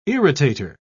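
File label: "irritator", British English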